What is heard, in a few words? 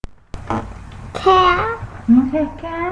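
"can", spoken word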